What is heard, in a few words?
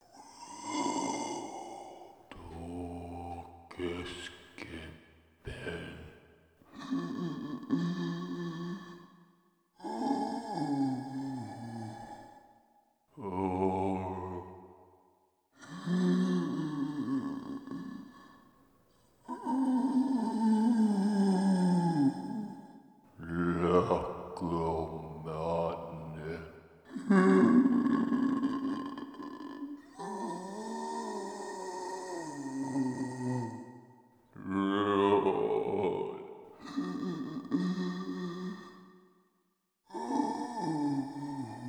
long mutter set; Conductor